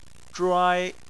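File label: "zhuai" Chinese, first tone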